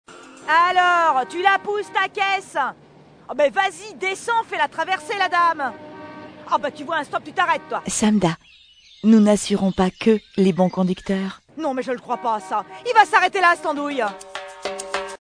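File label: Loud Parisian Accent + Young Voice, Commercials, French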